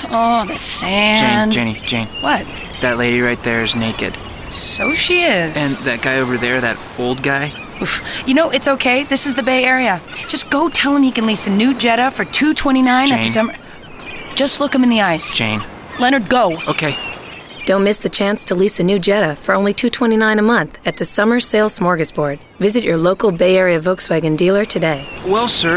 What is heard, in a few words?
beach, Radio